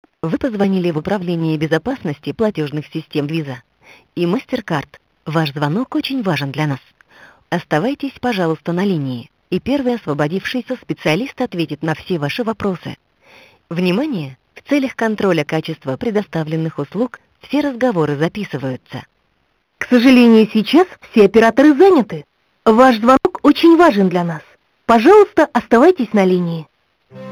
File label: fraud ivr